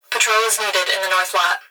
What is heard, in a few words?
Emergency Human Female Voice Plant Security Dispatch Patrol Is Needed In The North Lot; emergency effects